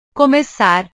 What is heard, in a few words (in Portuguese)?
"comecar", portugues; portugues/verbos